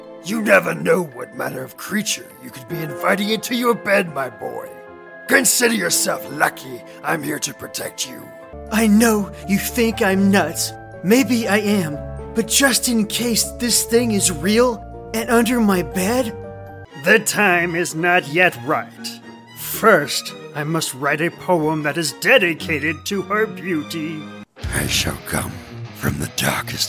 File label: Animation